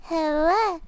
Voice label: baby saying "hala"

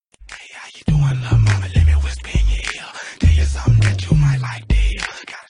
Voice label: whisper

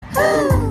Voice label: woman performs at childrens event confetti

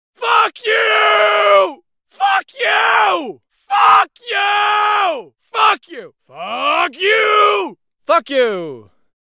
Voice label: fuck you, male

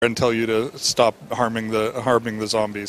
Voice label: mystery voice

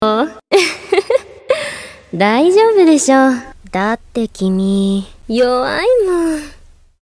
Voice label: female version; gojo

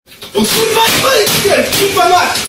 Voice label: angry polish kid

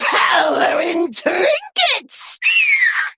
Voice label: female arakkoa play

